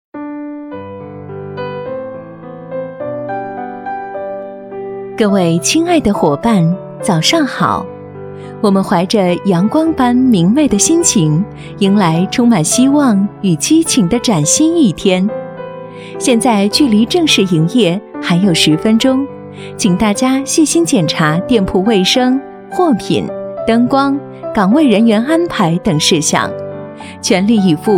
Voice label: 多媒体 广播提示 商场广播

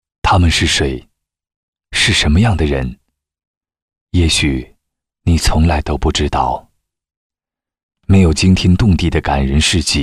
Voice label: 男国 专题 人物; 通信人

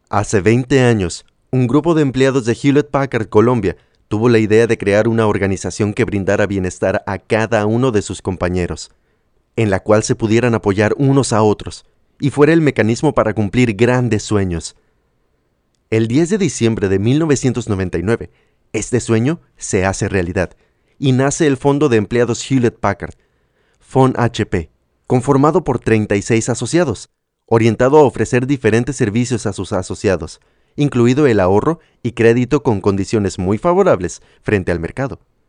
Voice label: 西班牙语男 外语 小语种

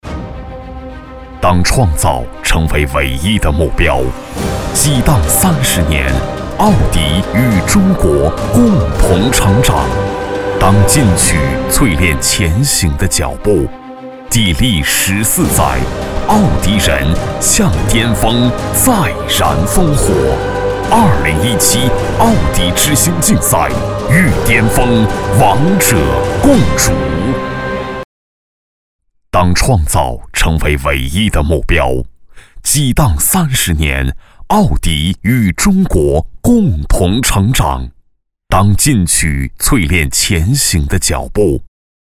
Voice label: 男国 专题 颁奖 配音; 奥迪之星颁奖晚宴配音

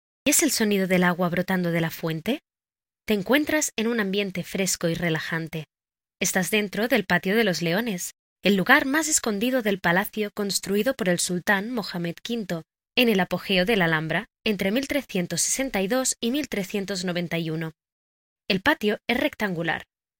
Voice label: 西班牙语女 外语 小语种

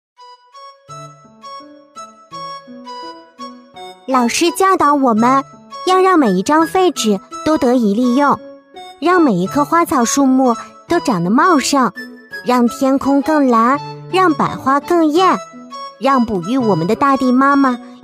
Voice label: 动画 童声 女童 可爱懂事 公益广告

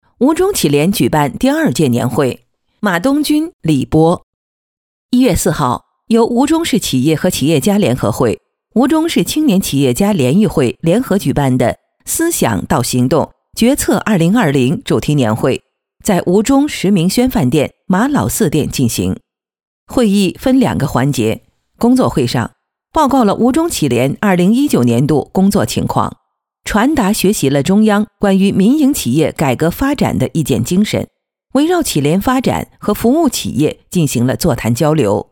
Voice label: 专题 新闻, 女国; 吴忠新闻

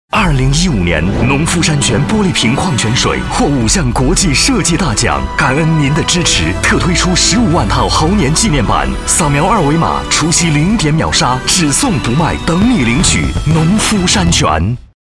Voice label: 广告_食品饮料; 农夫山泉金猴水

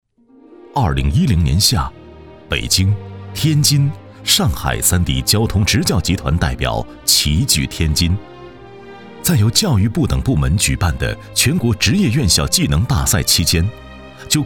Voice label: 专题 学校 稳重, 男国; 京津冀职教联盟

